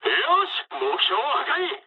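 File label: bomb success mood med, speech japanese; War Thunder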